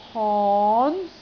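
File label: "hon2", Cantonese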